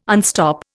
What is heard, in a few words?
"unstop", Indian